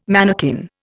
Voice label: "manakin", Indian